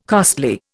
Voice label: "costly", Indian